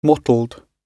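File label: "mottled", English; oxford api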